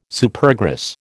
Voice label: "Supergrass", American